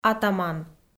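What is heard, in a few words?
"атаман", Komi, female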